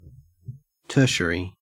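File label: "tertiary", Australian; pronunciations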